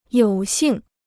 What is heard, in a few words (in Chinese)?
"有幸", Chinese